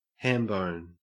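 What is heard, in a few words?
"hambone" Australian English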